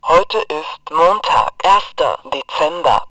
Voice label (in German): Datumansage sprechende Funkarmbanduhr